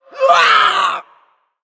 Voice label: Female Elemental Death